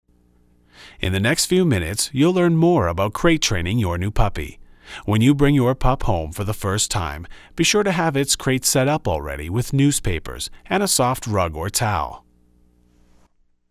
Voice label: mic